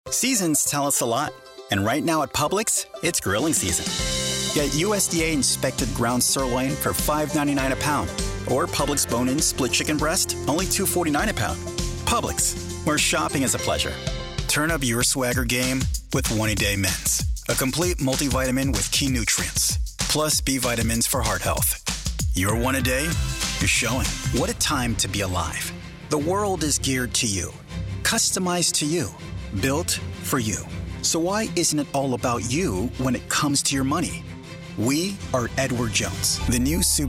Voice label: Commercial, approachable friendly, warm, young adult Male